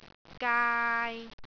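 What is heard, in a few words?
"gaai3", Cantonese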